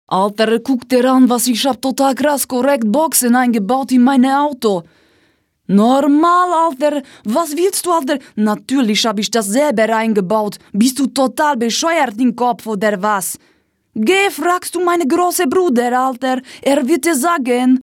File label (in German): turk Akzent; dialekt